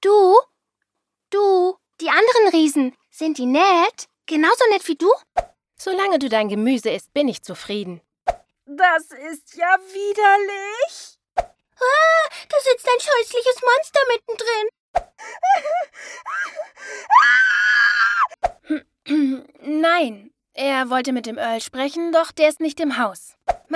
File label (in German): Hoerspielmix